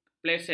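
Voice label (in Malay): "kepleset", Malay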